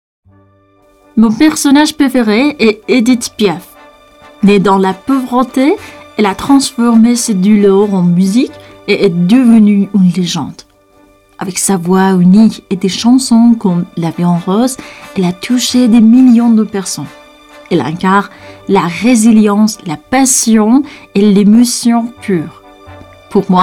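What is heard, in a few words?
France Woman; zaban kharjeh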